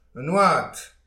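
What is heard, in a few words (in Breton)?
"un houad", Breton; petit precis de prononciation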